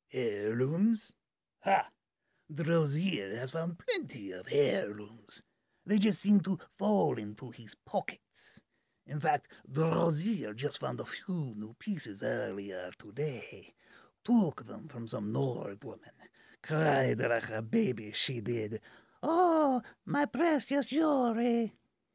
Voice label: khajiit male audition